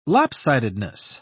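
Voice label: "lopsidedness", British English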